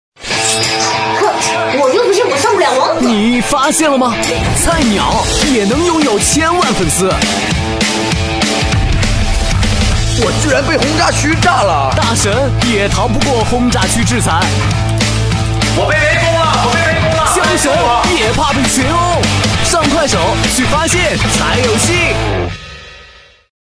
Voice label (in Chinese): 广告年轻; 快手